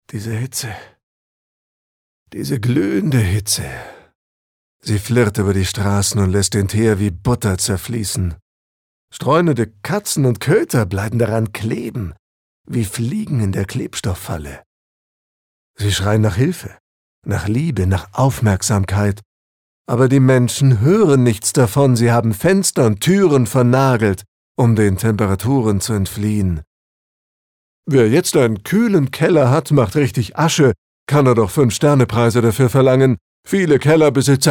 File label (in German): Schauspiel Neutral